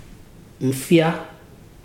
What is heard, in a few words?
"white", Ibibio